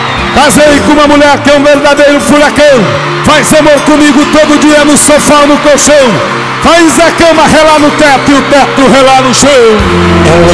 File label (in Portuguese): Rodeios Versos narrados; Esportes; mulher furacao